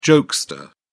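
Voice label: "jokester", British English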